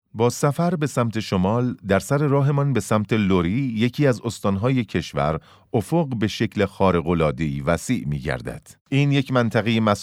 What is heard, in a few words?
documentary